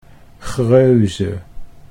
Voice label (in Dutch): "Greuze", Dutch